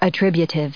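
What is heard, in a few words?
"attributive", US English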